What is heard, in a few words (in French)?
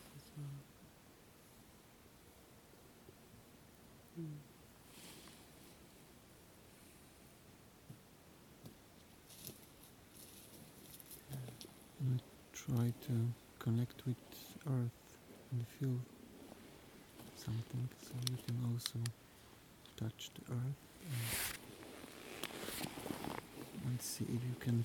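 Bialowieza conversation forestière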